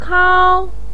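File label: "kao" first tone, chinese, voice pinyin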